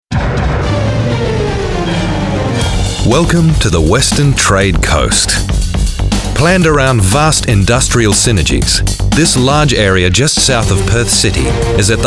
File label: Corporate